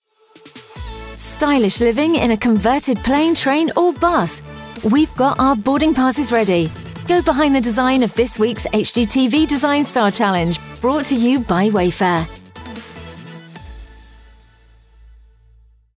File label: Promo; Wayfair